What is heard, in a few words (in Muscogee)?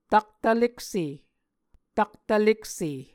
"taktvlekse", Muscogee